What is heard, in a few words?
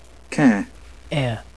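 "care", British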